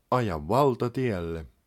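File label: Motorway Enter, Finnish, male; Opas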